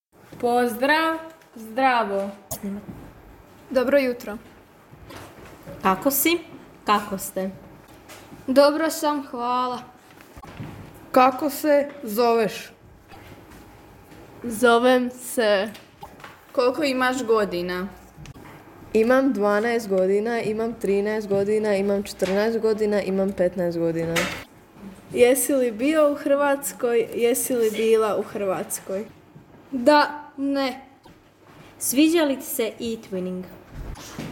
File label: Croatian